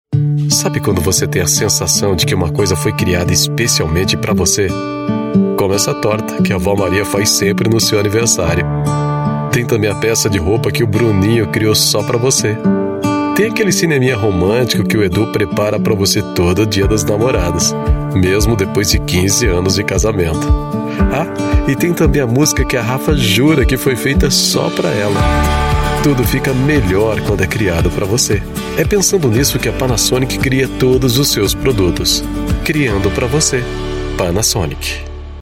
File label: Brazilian Portuguese Commercial TV